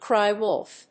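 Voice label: "cry wolf", English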